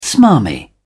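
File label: "smarmy", English, British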